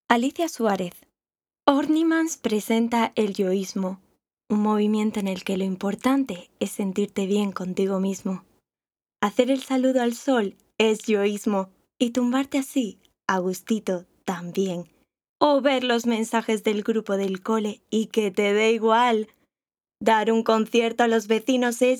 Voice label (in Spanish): Demo Publicidad